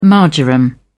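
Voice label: "marjoram", English, British English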